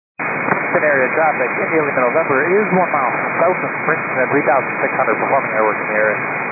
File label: 122.925 MHz; scanner radio